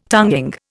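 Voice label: "tonic", Indian; Dictionary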